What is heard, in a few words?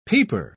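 "peeper", English, British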